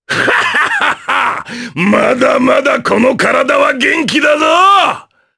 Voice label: vox, jp; Ricardo; kingsraid

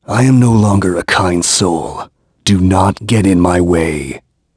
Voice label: vox, en; kingsraid; voices heroes

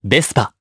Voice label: Vox, jp; Zafir; Vespa; kingsraid

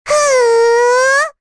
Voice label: Rehartna Vox Casting, voices heroes en; kingsraid-audio

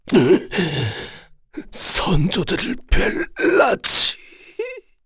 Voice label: Vox Dead, kr; heroes; Dakaris; kingsraid

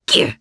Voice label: Landing, jp; Lakrak; kingsraid

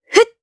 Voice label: Vox Attack jp; Kings Raid

